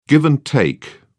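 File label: "give-and-take", English, British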